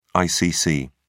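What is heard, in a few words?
"icc", British English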